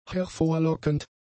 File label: "hervorlockend", German; adjectives